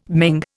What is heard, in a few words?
"Ming", Indian